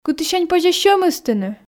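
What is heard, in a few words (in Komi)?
кытысянь позьӧ сьӧм ыстыны, Komi, female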